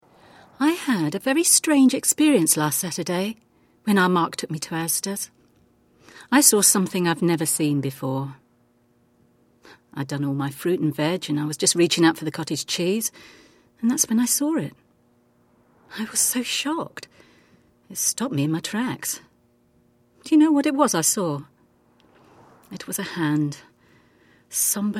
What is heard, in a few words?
passionate, Voiceover